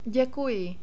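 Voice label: "dekuji", Czech, male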